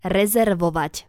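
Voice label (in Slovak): "rezervovat", Slovak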